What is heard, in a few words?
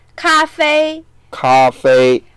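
"ka1fei1", Mandarin Chinese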